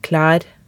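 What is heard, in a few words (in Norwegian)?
"klær", Norwegian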